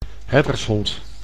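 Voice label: "herdershond", Dutch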